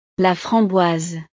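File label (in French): "la framboise", French; frutas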